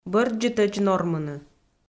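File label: "бӧрддзытӧдз нормыны", Komi-Zyrian, female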